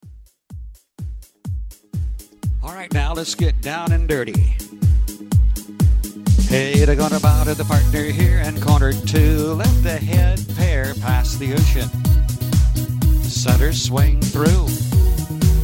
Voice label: Called Plus Patter